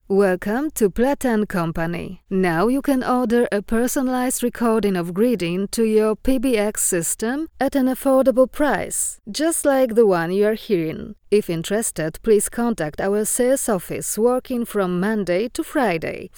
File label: woman en